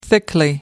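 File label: "thickly", British English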